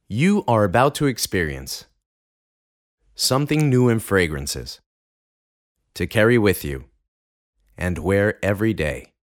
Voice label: Plac, Ingles (USA)

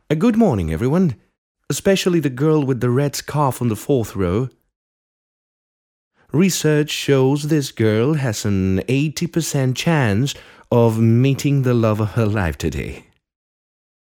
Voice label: Trans, Ingles (Britanico)

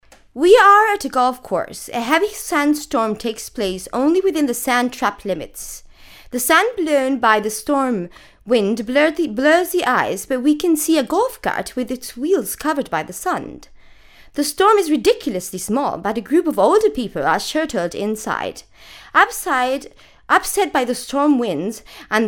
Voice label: British; Impulse

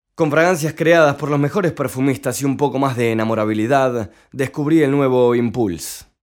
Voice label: Bajada, Espanol

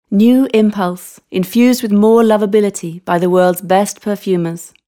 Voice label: Bajada, Ingles (Britanico)

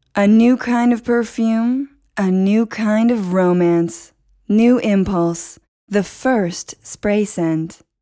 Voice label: Bajada, Ingles (USA)

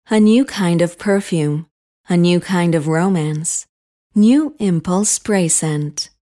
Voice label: Bada, Ingles (USA)

